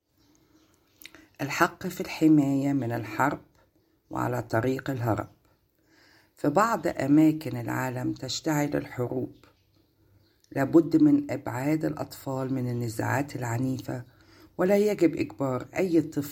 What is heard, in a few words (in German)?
Schutz vor Krieg arabisch